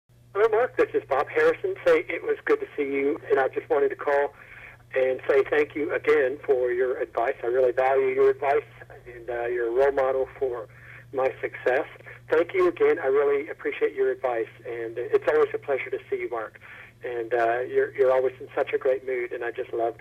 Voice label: Phone Message